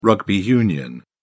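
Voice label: "rugby union", English, British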